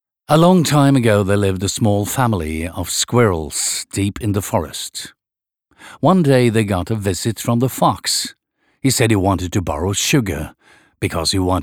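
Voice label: Norwegian english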